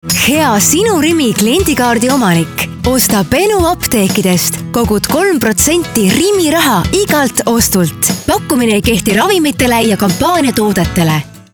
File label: ee, kvinne, estisk